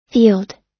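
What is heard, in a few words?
"field", American English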